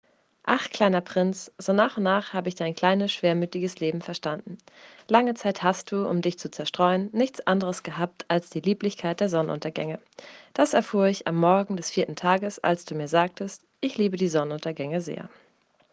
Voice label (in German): Deutsch Bevern